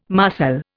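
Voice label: "marcel", Indian English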